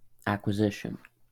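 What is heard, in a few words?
"acquisition", American English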